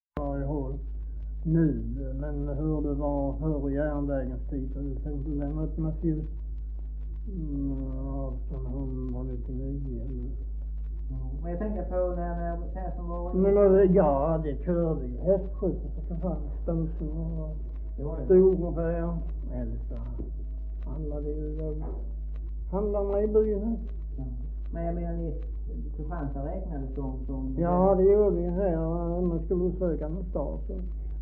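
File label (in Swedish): Maglehem Skåne, dialekter; Folkminnen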